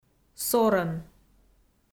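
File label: "сорӧн", Komi-Zyrian, female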